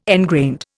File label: "engrained", Indian